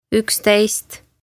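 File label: "uksteist", Estonian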